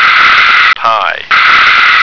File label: "pie", American